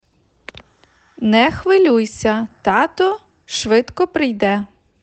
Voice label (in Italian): Ucraino